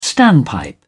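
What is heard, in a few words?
"standpipe", British English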